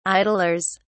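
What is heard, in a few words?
"idlers", English, British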